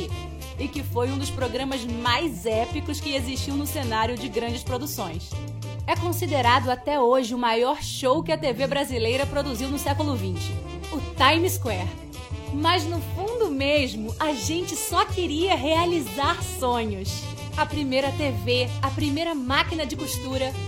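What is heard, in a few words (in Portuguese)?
Português - Brasileiro, Sotaque Carioca Institucional, Voz Jovem, Feminino; Sotaque Carioca Institucional TELERIO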